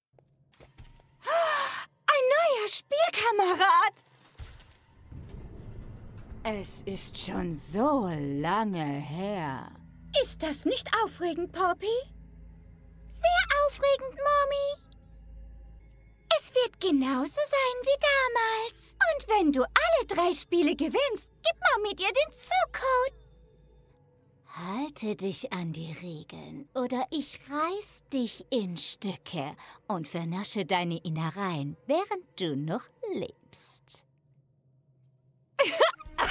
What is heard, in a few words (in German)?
Fiebertraum-Stimme verstellen